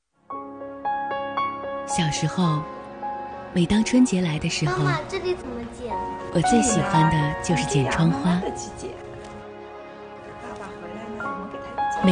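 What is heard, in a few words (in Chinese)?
微电影 知性, 女, 普通话; 支付宝时间账单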